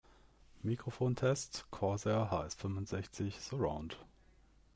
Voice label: Mic Check HS65 Surround; Corsair